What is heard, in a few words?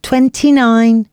announcements female